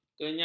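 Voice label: "kenyam", Malay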